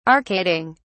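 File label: "arcading", British English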